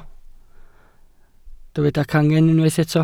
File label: "cangen", Welsh